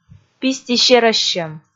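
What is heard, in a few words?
"пистисерӧссьӧм", Komi, female; audio dict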